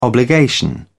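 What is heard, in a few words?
"obligation", British English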